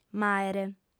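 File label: "m'àere", female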